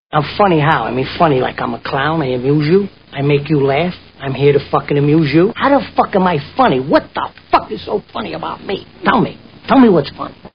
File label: funny; halflife